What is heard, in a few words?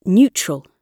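"neutral", British English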